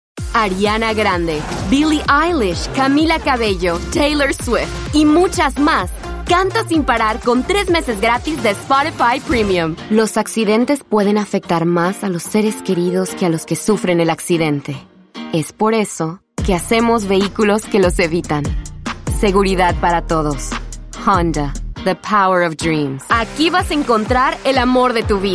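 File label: Spanish Latin American Female Commercial Spanish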